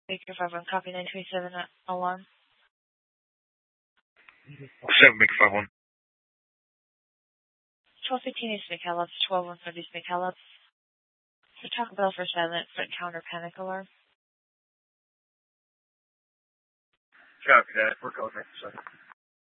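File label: police department dispatch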